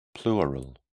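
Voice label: "pleural", British English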